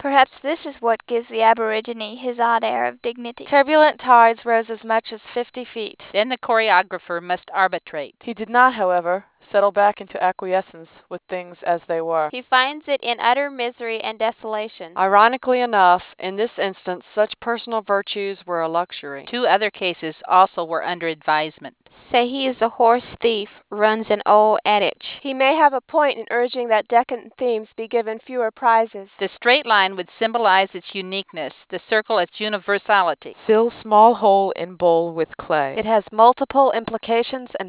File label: female